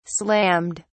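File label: "slammed", British English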